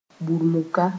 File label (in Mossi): "burumuka", moore